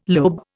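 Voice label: "lobe", Indian English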